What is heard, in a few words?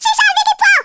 "Run away", Italian; Worms Armageddon